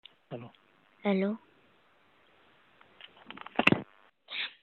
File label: Call recording Emergency number